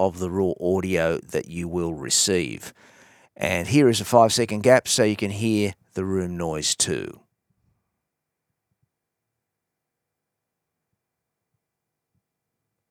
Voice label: Coach Studio sound check